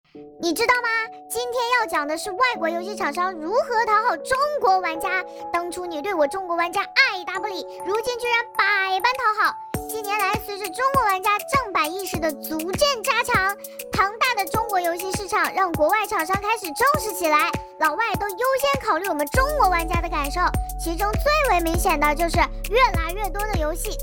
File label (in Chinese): 游戏解说加速配音